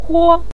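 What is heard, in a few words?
"huo", Mandarin Chinese, neutral tone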